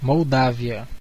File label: "moldova", pt BR; gcompris; geography